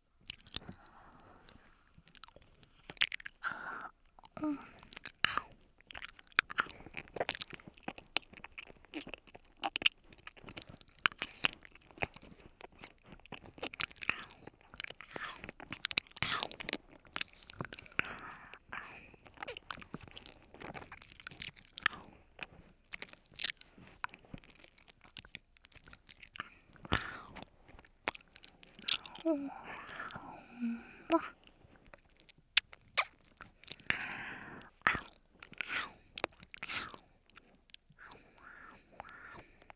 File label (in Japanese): はむはむ 左, ASMR